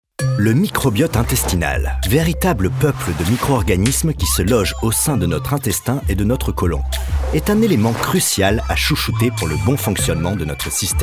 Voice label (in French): voix off fr